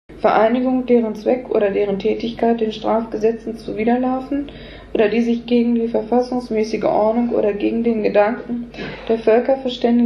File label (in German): Schriftsprache